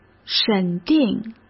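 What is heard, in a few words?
"审定", Mandarin Chinese; chinese-flashcards; hsk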